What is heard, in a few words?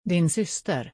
"Din syster", Swedish